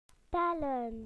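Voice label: "to count", British English; PictureDictionary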